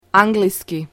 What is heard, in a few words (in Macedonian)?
"angliski", Macedonian